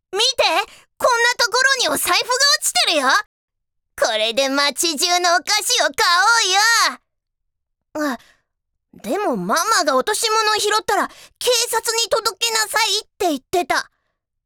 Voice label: 子供向けアニメ・男の子

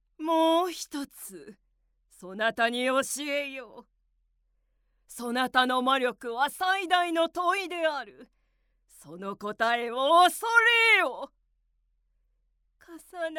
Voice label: 毒々しい悪役, ボイスサンプル

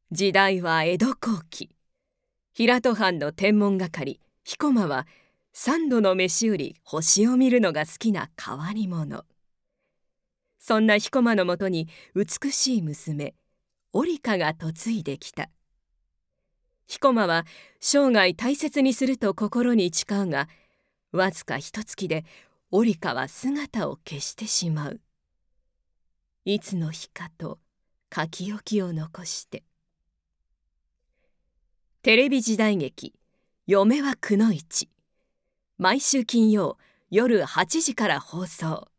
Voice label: 時代劇告知ナレーション

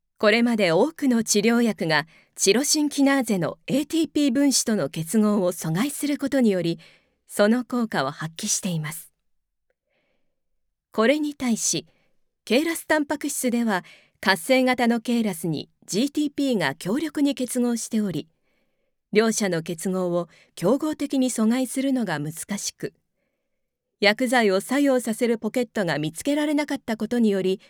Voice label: 薬剤説明ナレーション

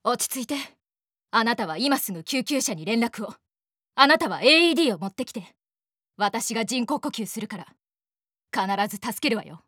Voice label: 女子

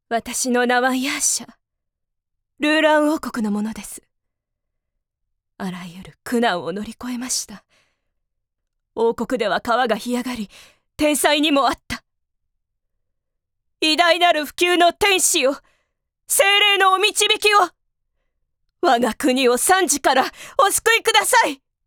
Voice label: 高貴な女性

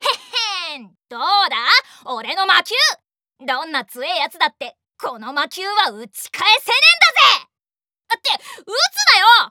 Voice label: 男子

